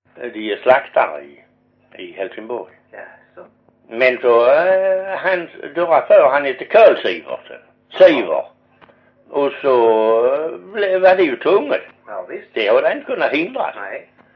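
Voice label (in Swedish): Perstorp Skåne, dialekter, svenska sagor; Folkminnen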